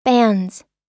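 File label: "bans", American English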